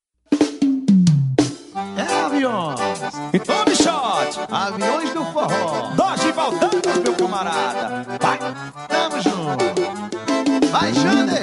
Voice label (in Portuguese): ANIV ROM FEM